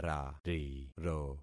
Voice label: "rariro", concat syllables; Affixing experiment